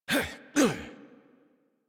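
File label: Horse Mount Adult Operator; Warframe; Duviri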